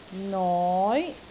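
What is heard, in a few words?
"noi5", Cantonese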